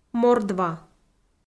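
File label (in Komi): "мордва", Komi, female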